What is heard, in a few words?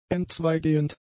"entzweigehend", German; adjectives